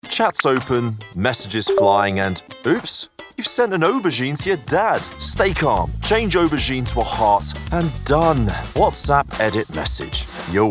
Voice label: Commercial; WhatsApp